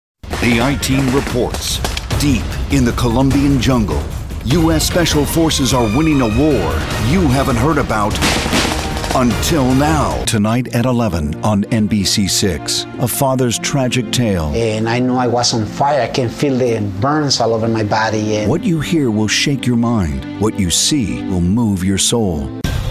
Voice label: tv affiliate news